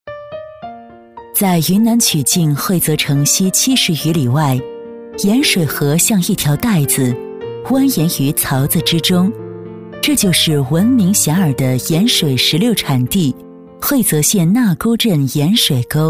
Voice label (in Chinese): 专题 盐水石榴 自然亲切